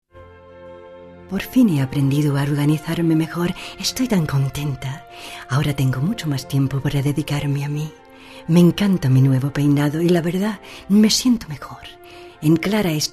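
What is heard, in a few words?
Spanish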